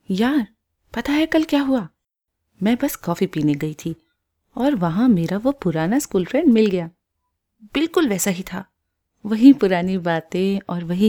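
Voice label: Hindi India female voice over, narrative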